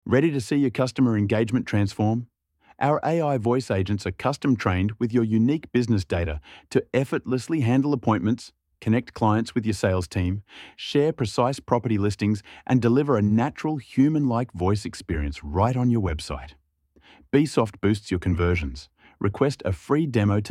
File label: Voice Agent Chat; Beesoft AI